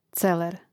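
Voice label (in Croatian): "celer", Croatian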